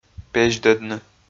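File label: "пезьдӧдны", Komi, male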